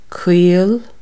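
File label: thin masculine, Irish, Consonants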